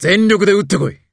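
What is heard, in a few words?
bring it on, Japanese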